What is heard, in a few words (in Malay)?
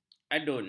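"adun", Malay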